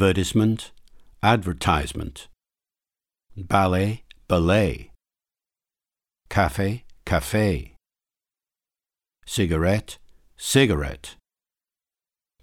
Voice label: Examples of British and American stress differences